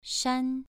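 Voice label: "shan" Mandarin Chinese, second tone, pronunciation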